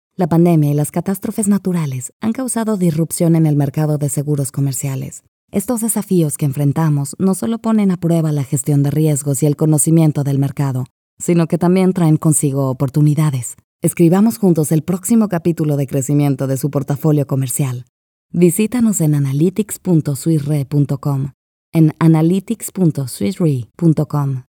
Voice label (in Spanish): Corporativo Narracion Explainer Es